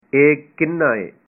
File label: how much is this, punjabi